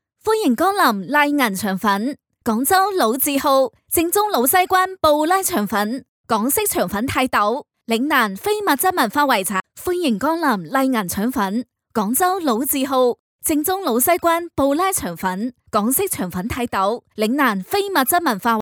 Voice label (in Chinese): 粤语肠粉广告